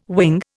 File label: "wing", Indian